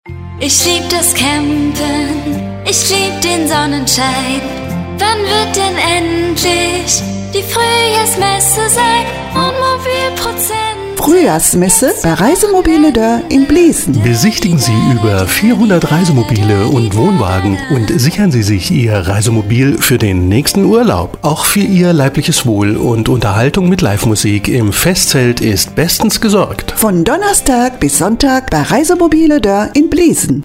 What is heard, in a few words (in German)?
Grundspot Sängerin und Sprecher